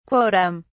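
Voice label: "quotum", English, uk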